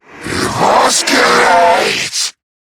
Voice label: Attack, en; Zariman Wraith

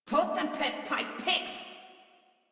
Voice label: Attack Female; Warframe; Modular Corpus Female

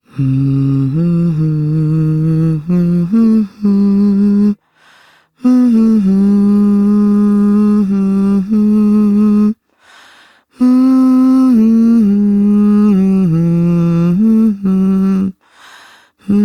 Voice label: Ambient Female, en; Duviri

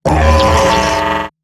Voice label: Death Eximus Corpus Robot Large; Dialog; Barks; Battle; Corpus Robotic Large

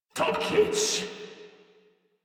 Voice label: Patrol Crewmen en; Warframe; Corpus Crewmen; Crewman D

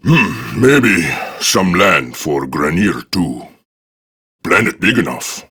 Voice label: Patrol Prime Grineer Male; Tau; Battle Barks

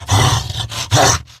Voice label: Idle Grineer Wolf, en; Battle Barks

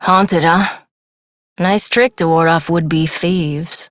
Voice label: Adult Op, en; New War; Ascend Tower

